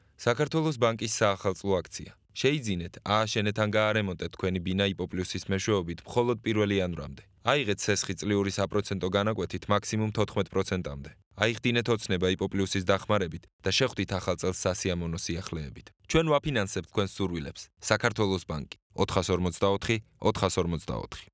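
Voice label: georgian male narration; voice demos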